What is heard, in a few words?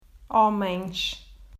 "Homens", Portuguese